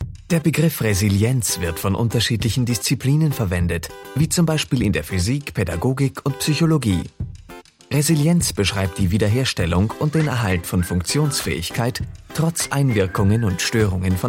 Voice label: german e-learning